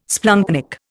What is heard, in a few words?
"splanchnic", Indian